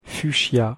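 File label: "fuchsia", French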